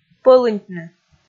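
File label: "пӧлыньтны", Komi, female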